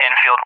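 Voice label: "Enfield", English, USA; CallSign; DCS; Allied Flight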